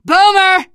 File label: warn boomer, teengirl, voice; Left 4 Dead 2